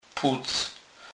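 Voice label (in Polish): "puc", Kurpie dialect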